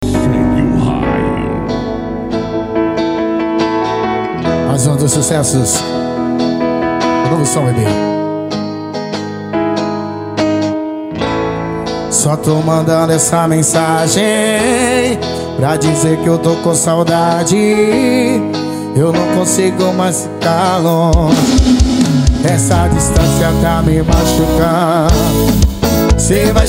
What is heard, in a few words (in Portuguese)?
locutor a voz magnifica